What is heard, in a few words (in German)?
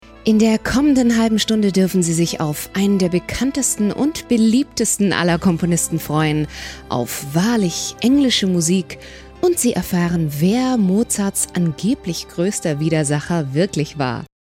Kommentar Neutral